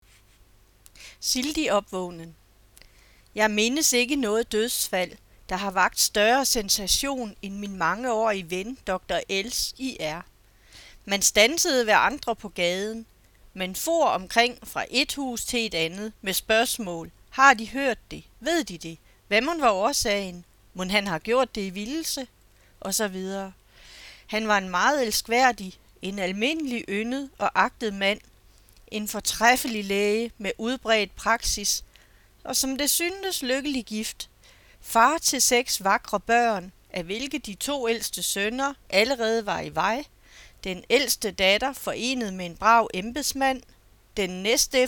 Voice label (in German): daenisch